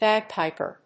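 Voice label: "bagpiper", English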